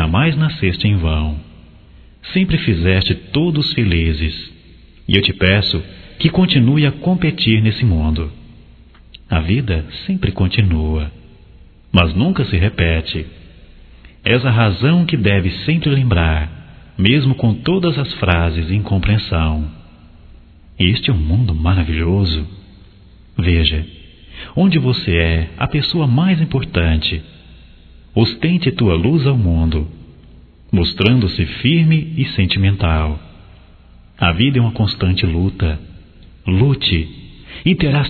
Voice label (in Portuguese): Aniv para neta, Telemensagens; Telemensagens 2017; Aniversarios